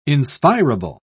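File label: "inspirable", British English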